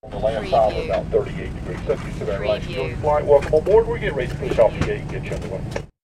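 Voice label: Pilot To Cabin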